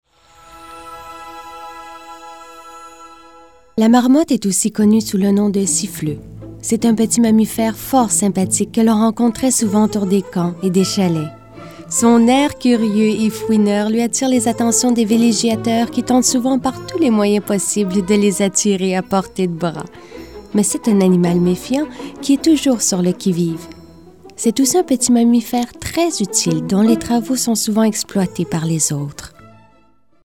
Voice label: narration